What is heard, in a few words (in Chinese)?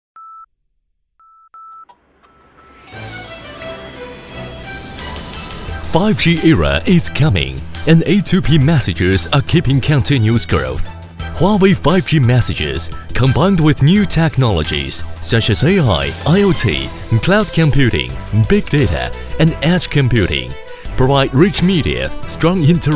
男英 广告 手机通信 5G 科技; HUAWEI5G; 外语; 英语